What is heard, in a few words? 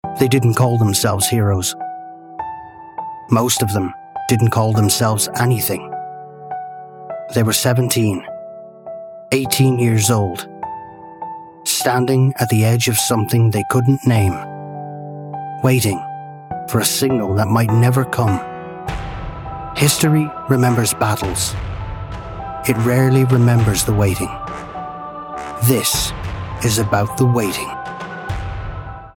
Documentary Serious Weighty Dramatic